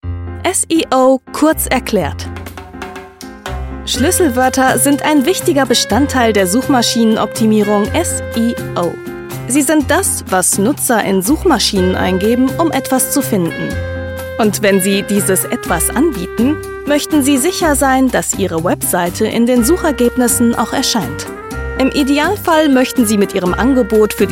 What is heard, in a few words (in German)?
Erklaerfilm; SEO kompetent